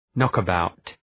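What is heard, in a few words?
"knockabout", English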